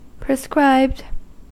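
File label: "prescribed", US English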